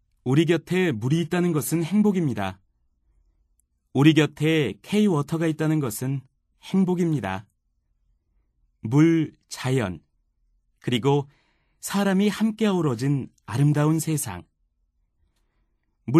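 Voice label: Korean, male; 남성 (Male); 광고; 나레이션; ARS; 캐릭터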